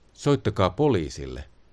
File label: police, finnish